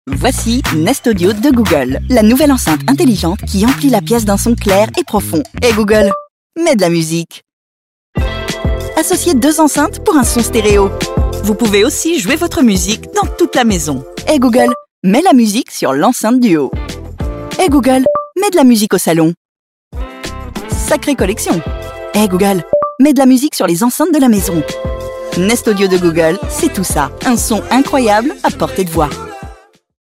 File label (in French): voixoff publicite; Google Nest Audio